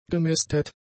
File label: "gemästet", German; adjectives